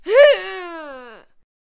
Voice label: Death Vocals